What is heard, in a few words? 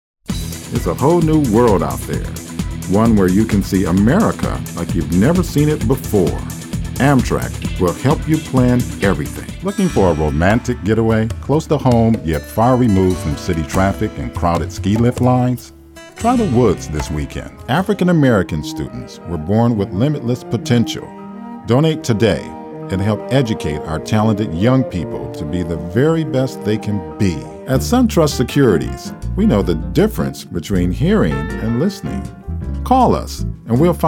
commercial